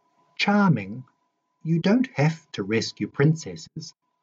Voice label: Charming; Belle